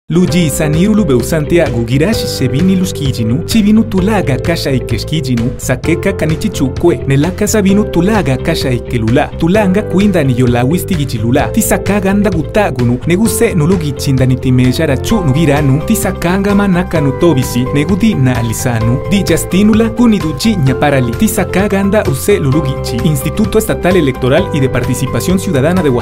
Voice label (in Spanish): Zapoteco